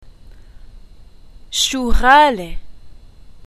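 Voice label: money how expensive, Lebanese arabic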